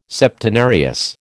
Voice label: "Septenarius", American